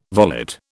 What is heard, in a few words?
"volet", British